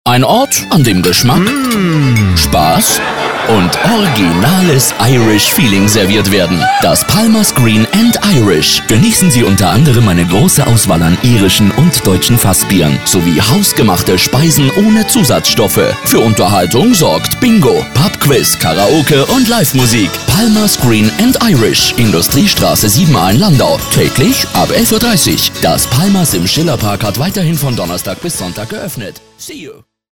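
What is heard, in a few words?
German, male, commercial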